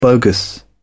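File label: "bogus", British English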